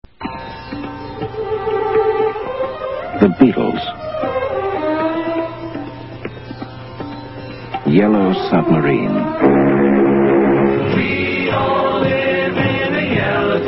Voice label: promo; psychedelic promos and radio spots